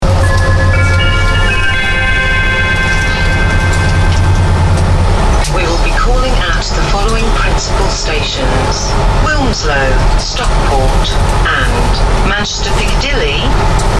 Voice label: Automated announcement Train Wales; Arriva Train Wales